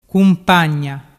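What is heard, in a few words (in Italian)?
"cumpagna", dialect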